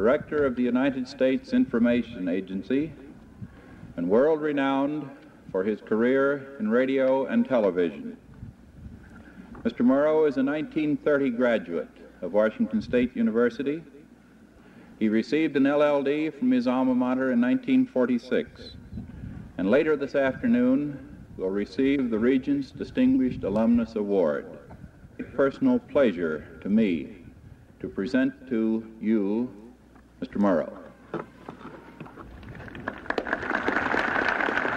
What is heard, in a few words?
Commencement Speech; 1962; WSU